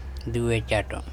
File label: "ecaTo" du, andamanese